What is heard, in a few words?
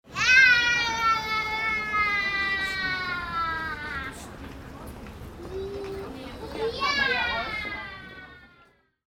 child yes yes